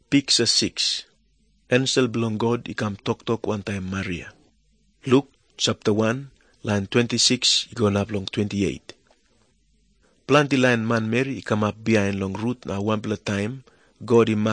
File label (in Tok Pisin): tok pisin, drama; png nt drama; servants of god